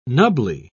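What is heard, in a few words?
"nubbly", British English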